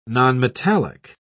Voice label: "nonmetallic", en uk